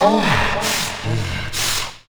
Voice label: VOICEFX TEKNO 140, Techno, Voice; eJay Sound Collection 2

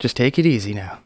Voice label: THREAT; Messiah

